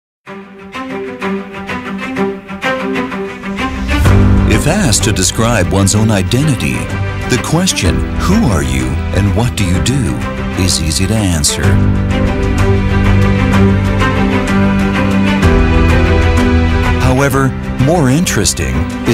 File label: corporate film; draxlmeier